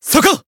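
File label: Voice attack Japanese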